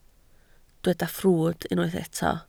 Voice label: "ffrwd", Welsh

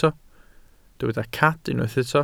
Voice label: "cad", welsh

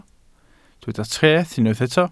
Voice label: "treth", Welsh

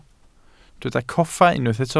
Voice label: "coffa", Welsh

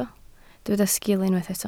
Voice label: "sgil", Welsh

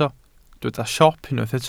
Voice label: "siop", Welsh

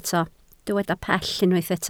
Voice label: "pell", Welsh

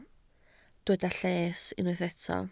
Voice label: "lles", Welsh

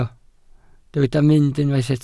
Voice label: "mynd", Welsh

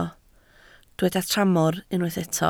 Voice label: "tramor", Welsh

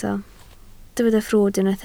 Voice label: "ffrwd", Welsh

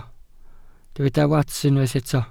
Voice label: "wats", Welsh

